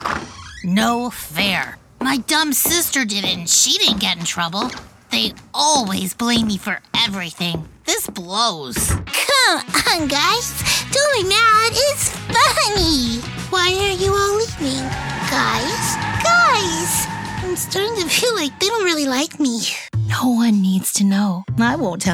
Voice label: Animation Demo